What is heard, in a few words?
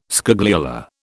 "scagliola", British